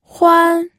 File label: "huan1", pinyin audio